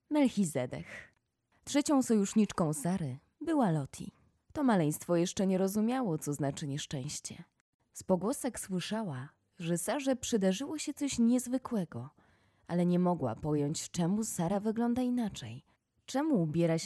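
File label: Polish, female, audiobook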